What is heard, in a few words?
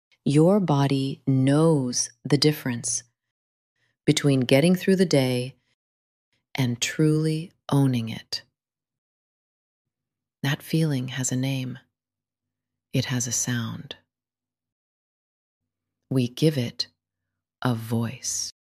ads supplement